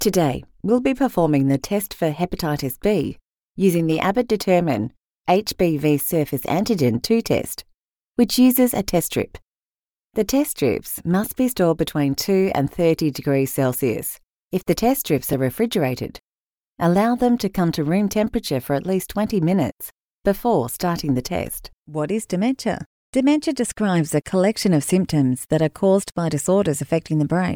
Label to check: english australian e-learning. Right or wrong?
Right